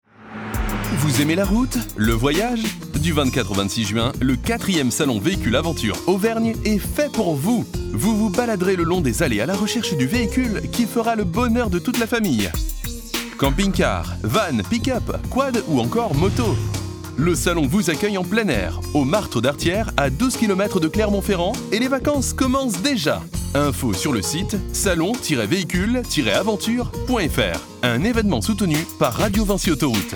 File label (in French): promo; salon vehicule aventure